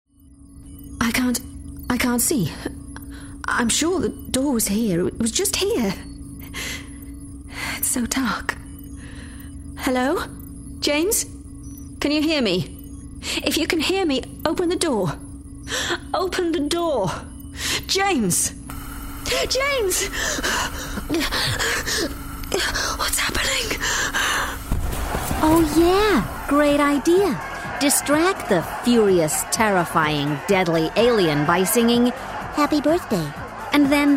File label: gaming character